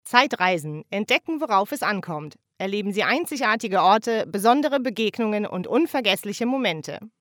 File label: commercial, german